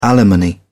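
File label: "alimony", British English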